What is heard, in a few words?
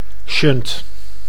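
"shunt", Dutch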